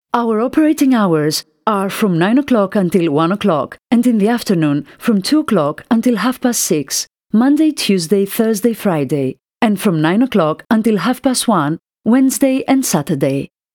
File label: our operating hours are, answering machine